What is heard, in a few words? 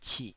"cih3", Taiwanese